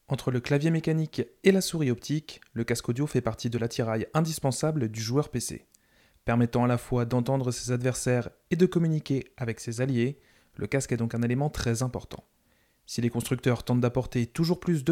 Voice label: MVX2U SM7DB noamp ideal